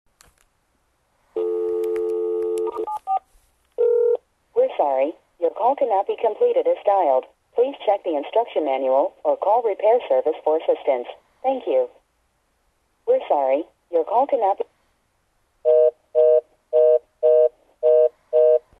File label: fios call cannot complete as dialed